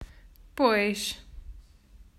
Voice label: "Pois", Portuguese

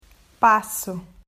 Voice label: "Passo", Portuguese

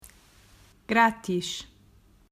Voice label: "Grátis", Portuguese

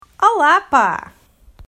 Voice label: "Olá Pá", Portuguese

